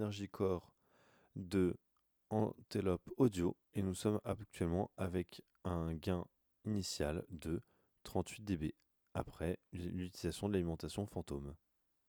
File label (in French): micro bass cut; logitech blue sona